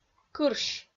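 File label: "кырсь", Komi-Zyrian, female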